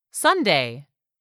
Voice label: "Sunday", English; 3000 words